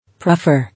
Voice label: "Prefer", English

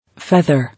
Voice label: "Feather", English

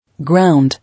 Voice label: "Ground", English

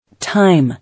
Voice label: "Time", English

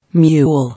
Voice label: "Mule", English

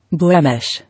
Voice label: "Blemish", English

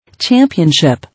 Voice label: "Championship", English

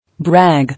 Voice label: "Brag", English

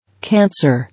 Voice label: "Cancer", English

